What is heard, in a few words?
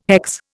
"hex", Indian